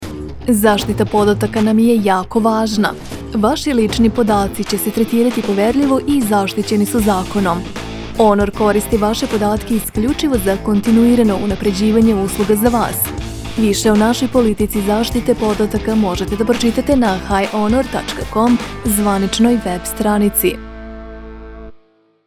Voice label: IVR, en-US